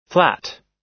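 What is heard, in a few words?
"flat", American English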